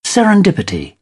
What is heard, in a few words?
"serendipity", British; English